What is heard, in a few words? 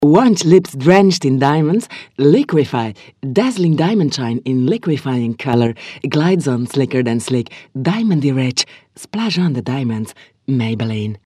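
belgian accent, voiceovers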